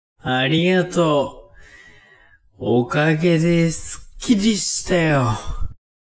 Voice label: man